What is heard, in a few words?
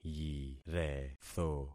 "yiretho", concat syllables; Affixing experiment